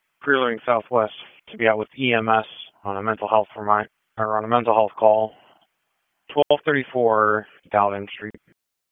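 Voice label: Police Southwest District Disp, radio; Raleigh; p25; wake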